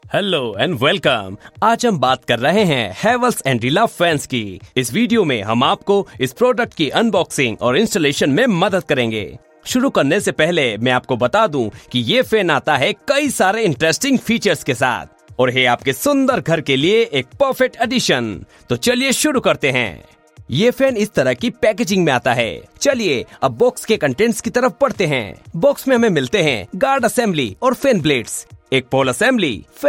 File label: Hindi Unboxing